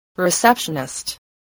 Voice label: "receptionist", english

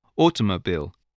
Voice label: "automobile", english

